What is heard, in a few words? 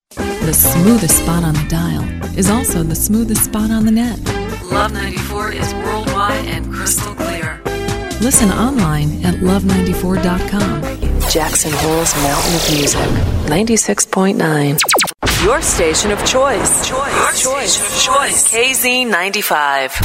imaging; commericals, industrials, promos